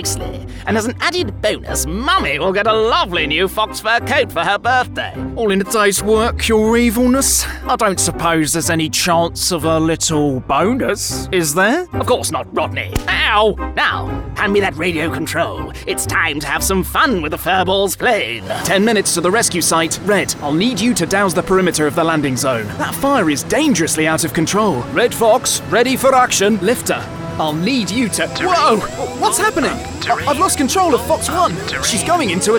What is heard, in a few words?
ANIMATION REEL